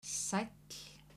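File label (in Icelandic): "sæll", Icelandic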